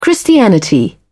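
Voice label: "christianity", British English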